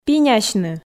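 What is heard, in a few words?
"пинясьны", Komi, female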